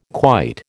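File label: "quite", American English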